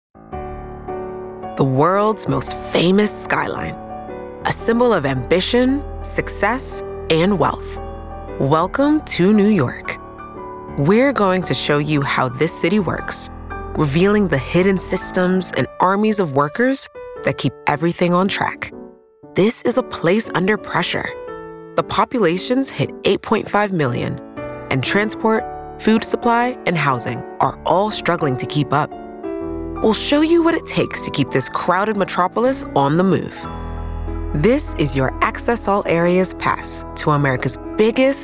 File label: Documentary Reel, A confident, fresh and honest US voice with great clarity; A confident, fresh and honest US voice with great clarity and warmth.